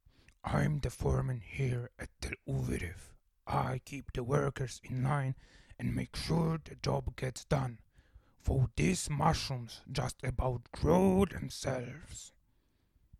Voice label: orc